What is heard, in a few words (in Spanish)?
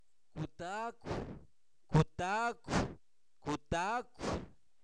chintente, Zapoteco, santo domingo albarradas; animales; INALI